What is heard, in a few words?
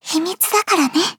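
start, Japanese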